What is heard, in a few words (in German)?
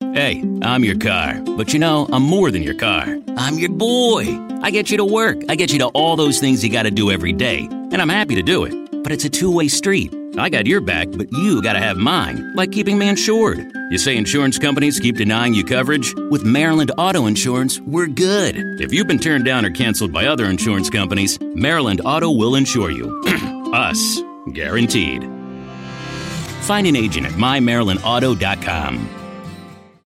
Eng US Variabel; Fremdtake